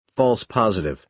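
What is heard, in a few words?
"false positive", American English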